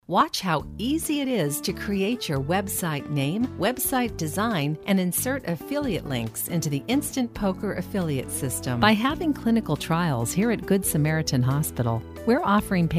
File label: elearning